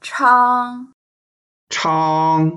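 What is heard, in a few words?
"chang1", Mandarin Chinese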